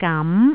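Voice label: "gam2", Cantonese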